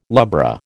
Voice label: "lubra", American